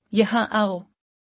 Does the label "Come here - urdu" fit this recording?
Yes